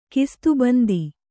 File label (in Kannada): "ಖಿಸ್ತುಬಂದಿ", Kannada